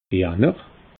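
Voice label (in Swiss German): "janner", Alsatian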